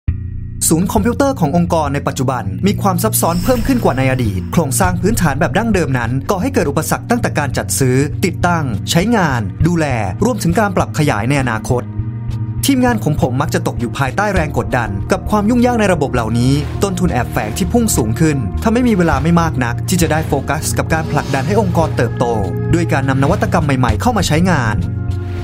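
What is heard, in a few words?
MC Thai Male; What is Web Scale IT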